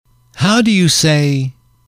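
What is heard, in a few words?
"how do you say", English, male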